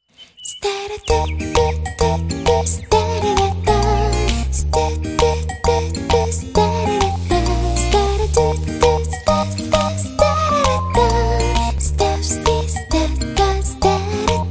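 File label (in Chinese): 广告电器; 小熊电器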